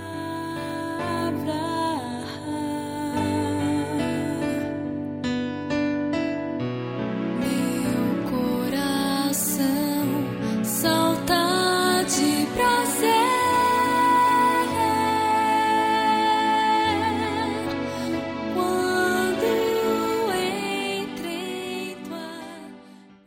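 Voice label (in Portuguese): Evangélica Aniversário de Filho, female, telemensagens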